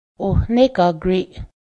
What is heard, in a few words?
broth, Cayuga; ohnegá:griˀ